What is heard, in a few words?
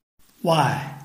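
"wai4", Mandarin Chinese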